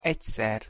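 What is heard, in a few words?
"egyszer", Hungarian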